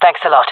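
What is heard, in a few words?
thankful, female voice; player vo; Counter Strike Model Pack; CS:GO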